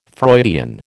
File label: "Freudian", American